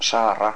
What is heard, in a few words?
"saarra", Basque